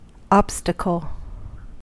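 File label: "obstacle", US English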